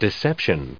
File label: "deception", en us